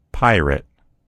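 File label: "pirate", American English; gcompris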